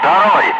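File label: Russian loud; Ka-50; Wingman; DCS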